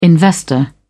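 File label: "investor", British English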